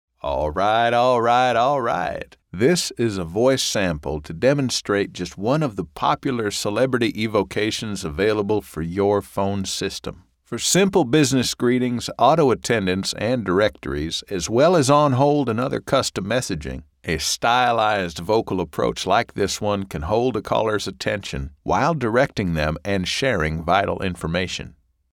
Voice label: Phone System Sample; McConaughey